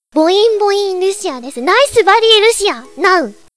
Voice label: chat